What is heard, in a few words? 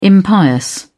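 "impious", British English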